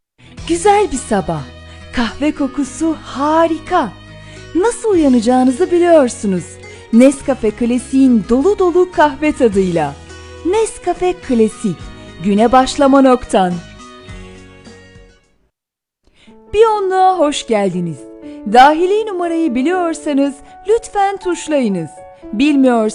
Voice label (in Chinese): 土耳其语女 外语 小语种